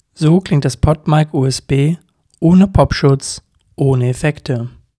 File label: Podmic USB pop